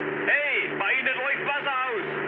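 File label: German damaged water mood high, speech; War Thunder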